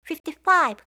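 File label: "55", English; eng suuji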